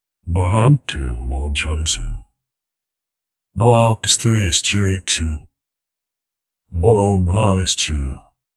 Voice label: vo line low whisper hes; narration